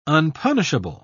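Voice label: "unpunishable", British English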